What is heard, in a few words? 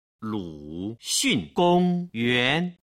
luxungongyuan, Chinese